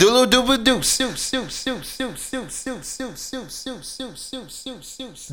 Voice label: DD Delay, Hip-Hop MCs; Sonic Foundry (Sony Creative Software); Hydroponic Hip-Hop